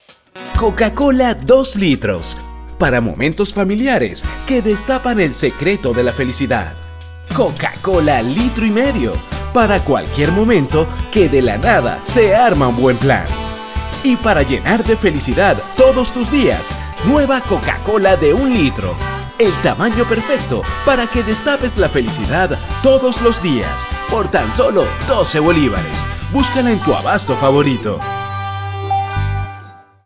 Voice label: RADIO; Coca Cola; 1 Litro